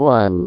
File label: "one", VIDEO GAMES; wow